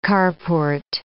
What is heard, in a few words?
"carport", English